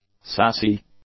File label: "sassy", English; adjectives